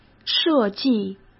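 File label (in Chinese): "设计", Mandarin Chinese; chinese-flashcards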